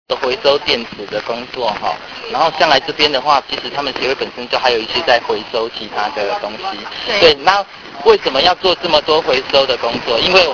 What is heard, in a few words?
book talking; 感動電池小天使關懷之旅錄音內容